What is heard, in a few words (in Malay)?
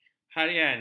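"harian", Malay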